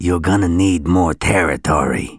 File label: Not Enough Land, Male, English; gangsters